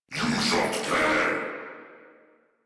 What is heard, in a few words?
Attack, en; Warframe; Corpus Amalgam Pilot